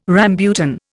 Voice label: "rambutan", Indian

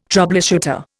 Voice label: "troubleshooter", Indian

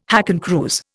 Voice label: "hakenkreuz", Indian

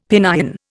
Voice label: "Pinyin", Indian; Dictionary

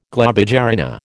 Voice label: "globigerina", American

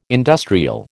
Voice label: "industrial", American

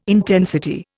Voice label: "intensity", Indian

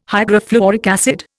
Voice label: "hydrofluoric acid", Indian

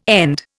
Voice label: "end", Indian

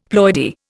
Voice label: "ploidy", Indian; Dictionary

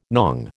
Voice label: "nong", American

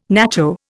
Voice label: "nacho", Indian

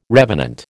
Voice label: "revenant", American

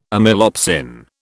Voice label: "amylopsin", British

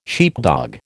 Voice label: "sheepdog", American

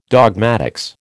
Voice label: "dogmatics", American

